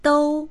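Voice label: "dou1", Chinese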